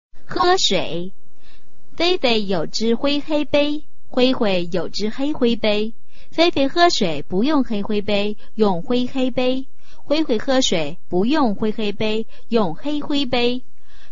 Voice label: chinese tongue twister